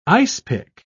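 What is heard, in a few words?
"icepick", English, British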